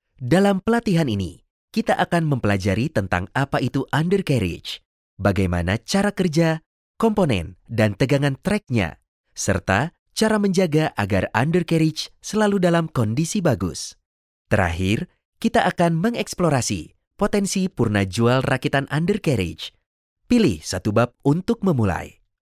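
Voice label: Indonesian, Narrative; GoLocalise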